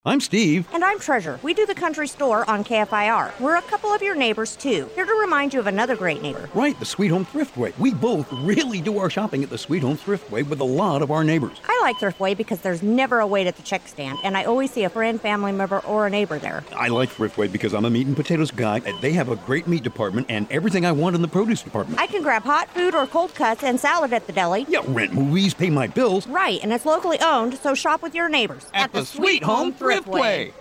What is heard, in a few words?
commercial; sales; thriftway